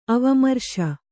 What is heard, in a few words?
"ಅವಮರ್ಶ", kannada